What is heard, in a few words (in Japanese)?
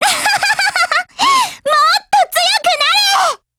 COMBINE JP; NEGEV